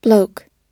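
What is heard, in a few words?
"bloke", American English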